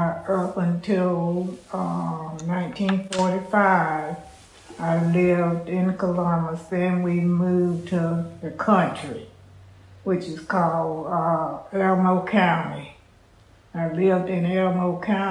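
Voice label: georgia, International Dialects of English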